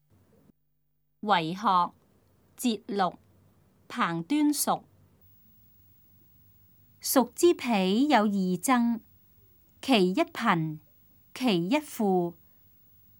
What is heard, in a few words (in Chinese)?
cantonese; 為學（節錄）; 粵語